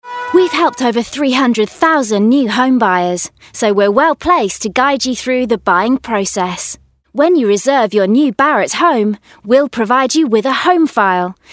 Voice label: Voiceovers UK Showreel